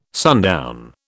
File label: "sundown", British